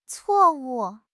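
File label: "cuo4 wu4", Mandarin Chinese; HSK4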